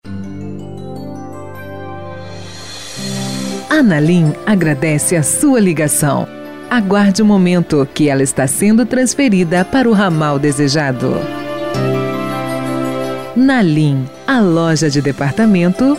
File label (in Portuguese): espera telefonica feminina